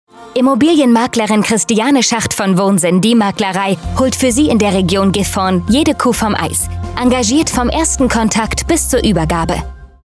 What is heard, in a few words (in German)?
Funkspot; Wohnsinn - Die Maklerei